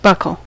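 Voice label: "buckle", US English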